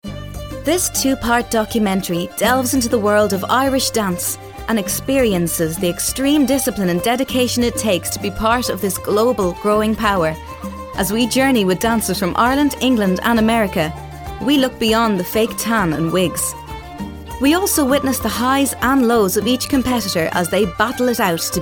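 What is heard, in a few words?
English Documentary Irish; GoLocalise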